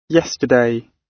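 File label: "yesterday", British English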